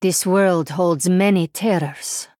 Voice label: Female Draenei